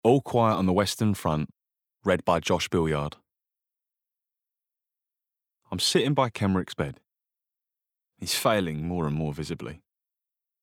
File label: Bookread